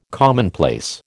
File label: "commonplace", American